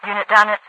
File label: unit down at, radiovoice; Garry's Mod; hl2; overwatch